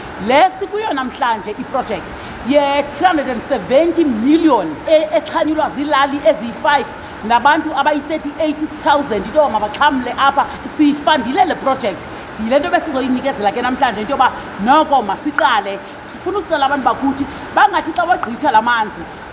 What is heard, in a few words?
isiXhosa Minister; Majodina on Mt Ayliff peri-urban BWS Project